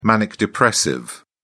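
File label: "manic depressive", British English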